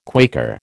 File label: "Quaker", American